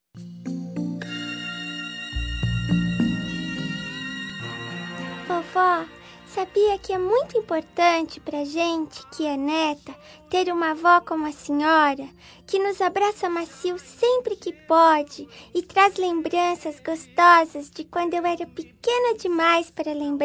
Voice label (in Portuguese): infantil fem avo, Aniversário Voz Infantil Avó – Voz Feminina